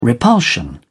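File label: "repulsion", British English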